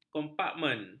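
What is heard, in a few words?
"kompartmen", Malay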